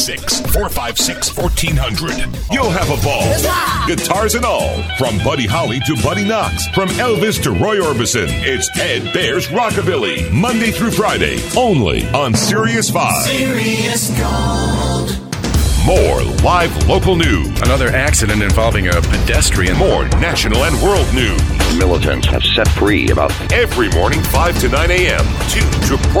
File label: Radio Image